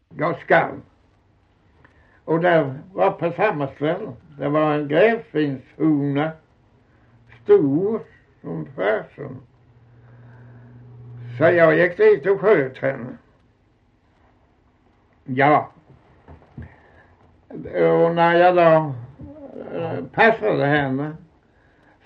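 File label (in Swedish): Osby Skåne, svenska sagor dialekter; Folkminnen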